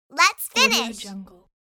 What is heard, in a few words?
cartoon lets finish